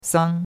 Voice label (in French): "sang1", chinois; Cours-de-Chinois